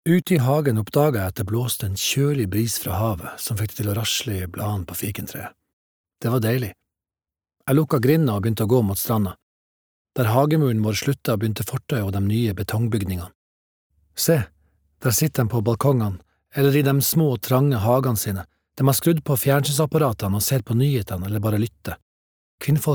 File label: no audio book nordnorsk